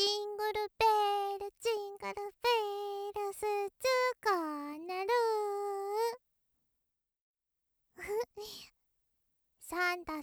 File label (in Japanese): ロリ クリスマスだよ